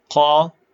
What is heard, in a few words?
short voyels arabic alphabet